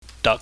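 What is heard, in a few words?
"dak", Cantonese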